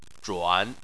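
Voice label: "zhuan" Chinese, third tone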